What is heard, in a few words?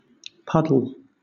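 "puddle", British English; pronunciations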